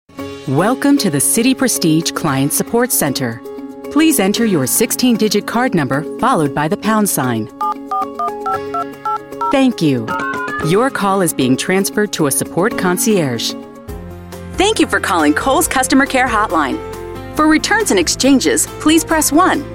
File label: english american ivr